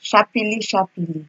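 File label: "shapely shapely", Nigerian Pidgin, female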